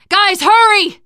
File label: hurry up, teengirl; player; survivor; voice